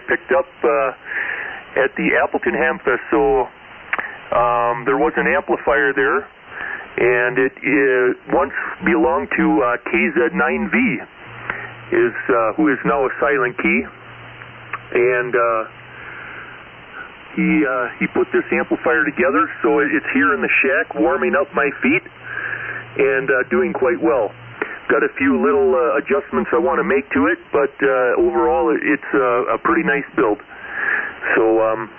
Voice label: Kenwood TS-430 & Homebrew 4-400 Amp; Forestville, Wi.

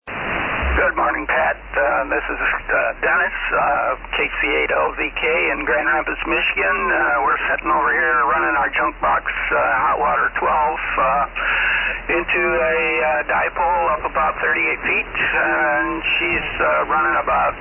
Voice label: Heathkit HW-12; Grand Rapids, Mi.

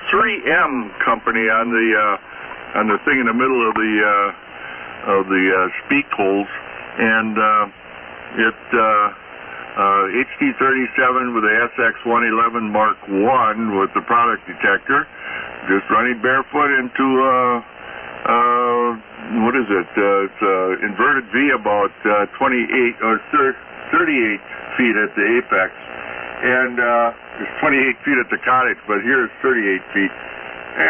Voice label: Halli HT-37 SX-111 MK-1 & Shure 250C Mic; Manitowoc, Wi.

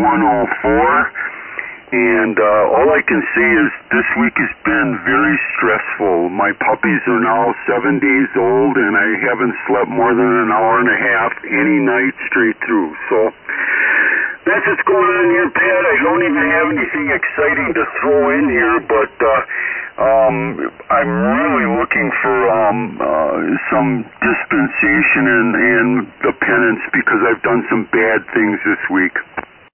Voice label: Yaesu FT-101ZD D-104 Mic & Homebrew 811 Amp; Hustisford, Wi.

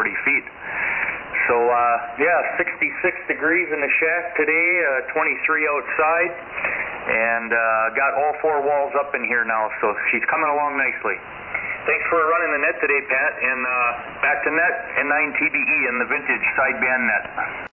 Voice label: Yaesu FT-101E Silver Eagle D-104 Mic; Forestville, Wi.

